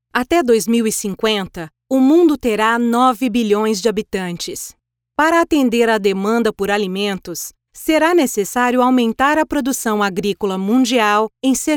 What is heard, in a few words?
Narrative; Rio Terra